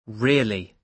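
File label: "really", British English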